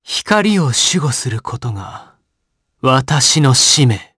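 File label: voice, Japanese; Arch; kingsraid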